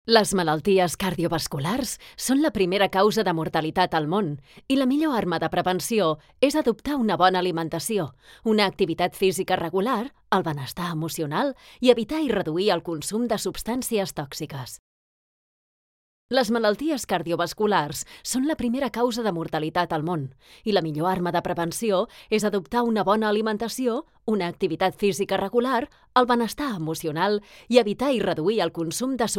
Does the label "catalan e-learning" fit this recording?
Yes